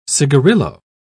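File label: "cigarillo", British English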